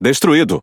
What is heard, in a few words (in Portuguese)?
Speech Pilots Bomber Destroy, PT BR; World Of Warships